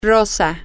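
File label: pink, Spanish, female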